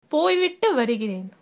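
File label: goodbye, Tamil, female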